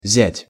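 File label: "zjat", Russian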